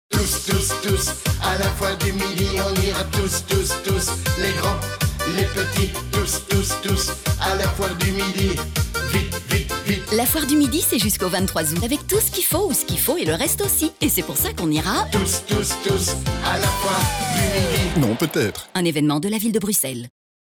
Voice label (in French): spot radio; FOIRE DU MIDI 2026